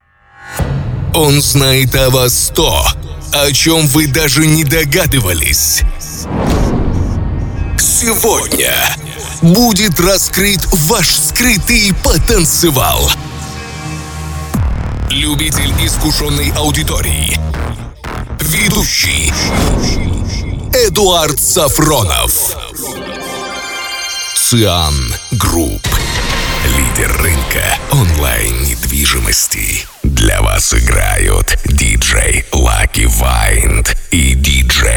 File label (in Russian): Мужской голос